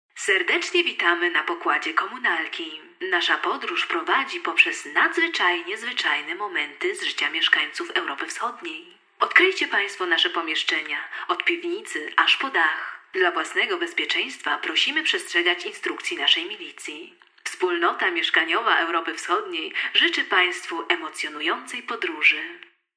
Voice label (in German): ansage polnisch, frauen